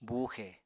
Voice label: "buuxe", Apatani